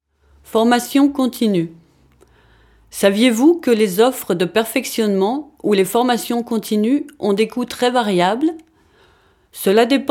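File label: French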